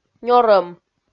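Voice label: "нёрӧм", Komi, female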